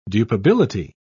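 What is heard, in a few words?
"dupability", British English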